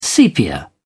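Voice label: "sepia", British English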